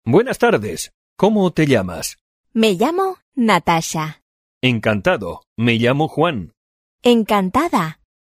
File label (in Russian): urok dialog